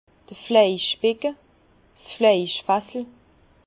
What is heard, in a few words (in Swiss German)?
"fleischbecke", Bas Rhin; vin; cuve à salaison